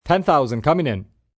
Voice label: 10K Coming In, Casino, gambling, male: '10,000 coming in', (human voice speaking)